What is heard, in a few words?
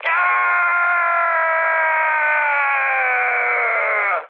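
Hungarian damaged pilot mood high, speech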